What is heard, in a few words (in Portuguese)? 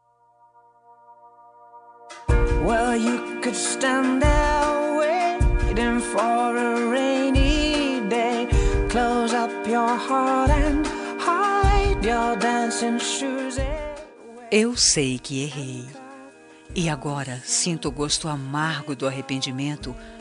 desculpa neutra fem, Telemensagem de Desculpas Geral – Voz Feminina